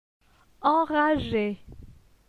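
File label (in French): "Enrage", French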